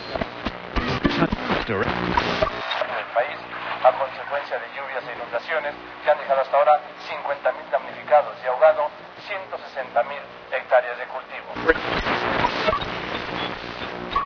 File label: spanish radio, ambient chatter; Source Engine; cstrike